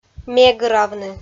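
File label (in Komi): "мегравны", Komi, female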